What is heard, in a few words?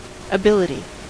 "ability", us